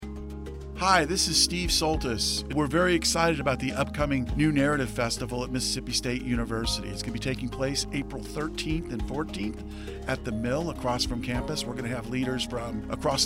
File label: Radio; New Narrative 2018